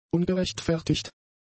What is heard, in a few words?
"ungerechtfertigt", German; adjectives